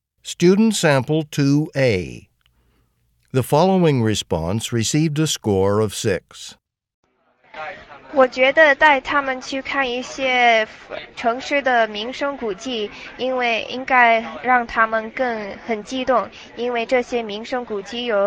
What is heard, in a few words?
chinese conversation